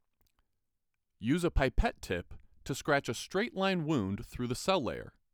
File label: Scratch Assay, Voiceover